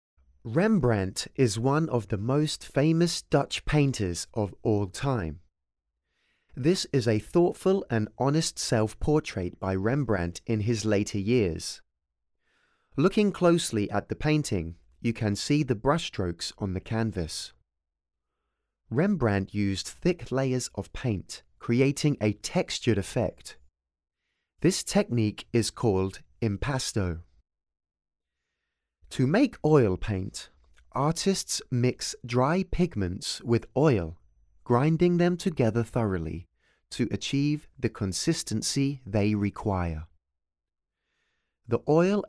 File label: English, audio guide